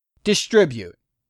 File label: "distribute", English; 3000 words